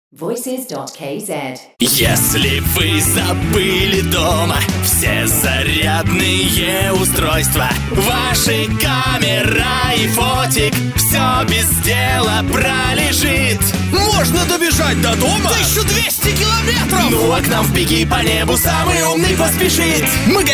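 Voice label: Russian vocal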